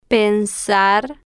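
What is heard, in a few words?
"pensar", spanish, slow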